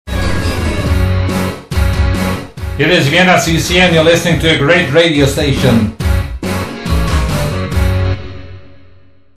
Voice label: radio advertising; viennacc